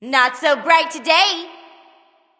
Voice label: taunt directed ra; smite; neith

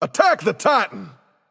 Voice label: vox attack m; smite; anubis

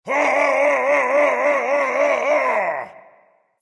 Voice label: battlecry, voice; Smite; ymir skin9

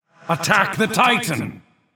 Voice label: vox attack m; smite; gilgamesh

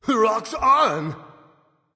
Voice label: vox emote; smite; raijin; voicepack

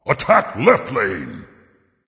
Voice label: vox attack dragon; smite; fafnir

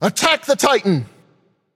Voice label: vox attack m; smite; khepri

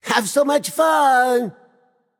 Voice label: vox emote; smite; raijin

